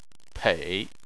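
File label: "pei" third tone, Chinese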